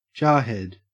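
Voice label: "jarhead", Australian English; pronunciations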